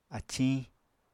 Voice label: "aciñ", Apatani